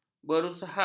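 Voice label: "berusaha", Malay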